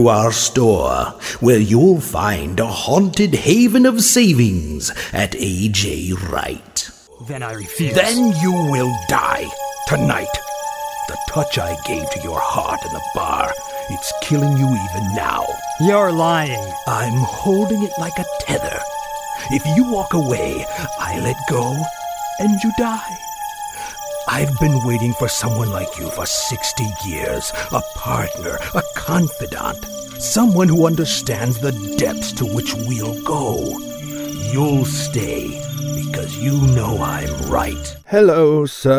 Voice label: halloween characters